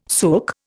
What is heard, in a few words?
"sook", Indian